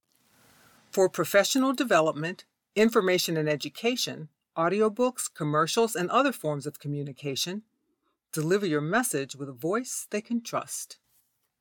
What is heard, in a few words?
Voiceover intro